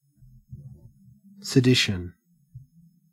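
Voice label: "sedition", Australian English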